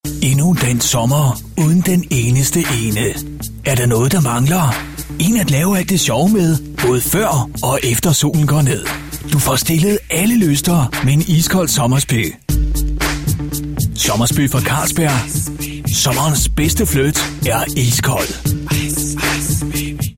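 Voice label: Danish; Danish, Scandinavian, Male, Home Studio, 20s-30s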